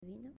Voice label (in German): "Vino" Spanisch